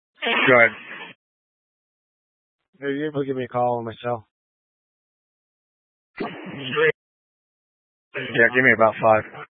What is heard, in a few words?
Incident Tac, scanner; Incident Tac 1